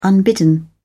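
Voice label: "unbidden", British English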